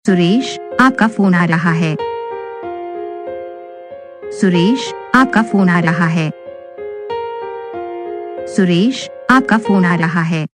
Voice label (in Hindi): suresh apaka phone a raha ha saying